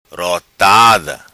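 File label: "Rotada", patua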